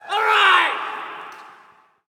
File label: Hockey Male Alright; Hockey Vocal Sounds